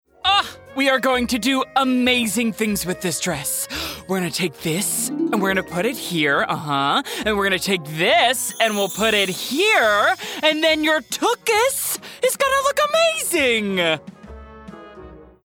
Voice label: Excited Dress Maker, voice-over